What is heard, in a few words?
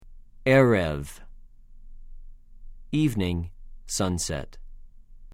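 "עֶ֫רֶב", Hebrew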